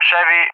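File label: "Chevy", English; CallSign; DCS; Allied Flight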